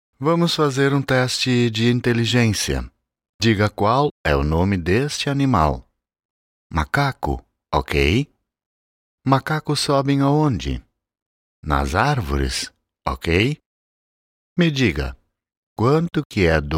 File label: Brazilian natural style